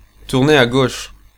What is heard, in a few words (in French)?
Turn Left, French, male